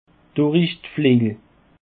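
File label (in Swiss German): "durichdflejel", Alsatian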